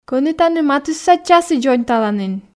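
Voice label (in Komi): кӧні тані матысса часі дзоньталанін, Komi, female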